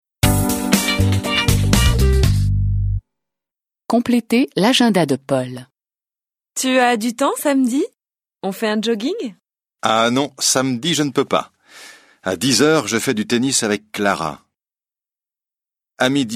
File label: French